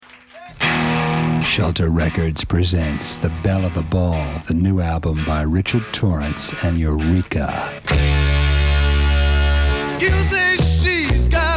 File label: commercial break